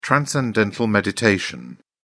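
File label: "transcendental meditation", British English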